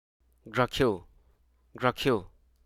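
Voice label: Hruso Aka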